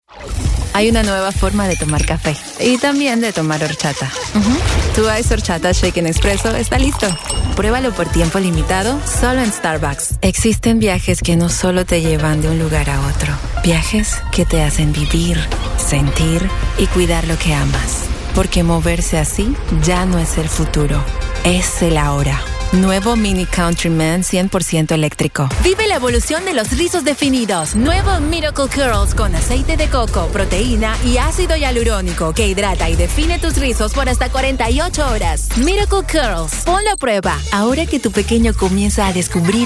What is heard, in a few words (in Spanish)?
Comercial